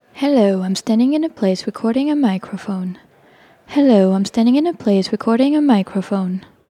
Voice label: Various Room Mic Test female